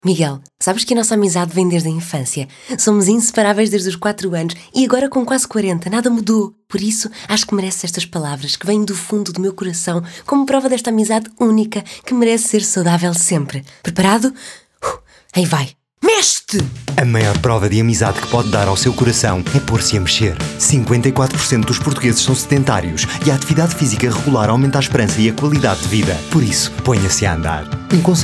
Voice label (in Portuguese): SPOT RADIO; FUNDAÇÃO CARDIOLOGIA